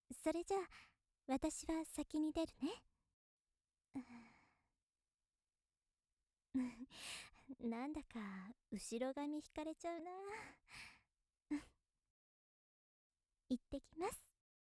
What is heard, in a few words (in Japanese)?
優しいお姉さん いってきます